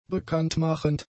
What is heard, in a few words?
"bekanntmachend", German; adjectives